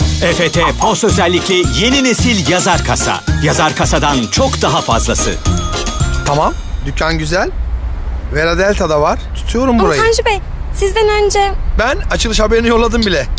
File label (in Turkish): enerjik esprili